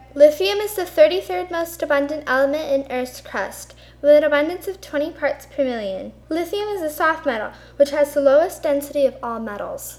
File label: narration; lithium